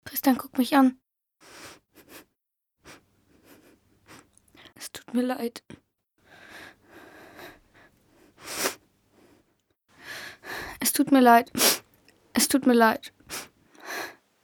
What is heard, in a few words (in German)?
synchron teenager